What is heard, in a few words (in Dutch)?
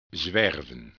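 "zwerven", Dutch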